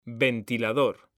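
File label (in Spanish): "VENTILADOR" Spanish, male; mekanta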